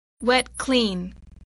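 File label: "wet-clean", English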